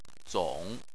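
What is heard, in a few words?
"zong" third tone, chinese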